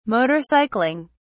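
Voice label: "motorcycling", British English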